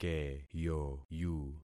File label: "keyoyu", concat syllables; Affixing experiment